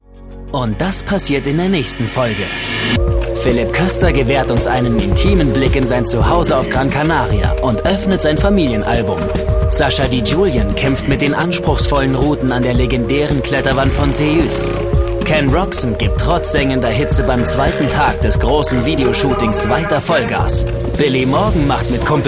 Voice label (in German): Kommentar, maenner; WildOnes